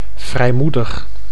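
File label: "vrijmoedig", Dutch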